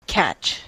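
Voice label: "catch", US English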